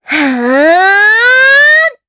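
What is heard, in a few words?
Vox Casting Japanese; Erze; kingsraid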